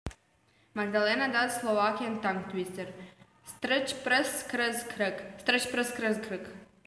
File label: Slovakian